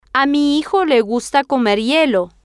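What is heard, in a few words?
a mi hijo le gusta comer hielo, Spanish, female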